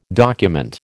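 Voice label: "document", American English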